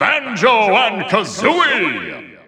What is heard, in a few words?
English Announcer; Banjo & Kazooie; SSBU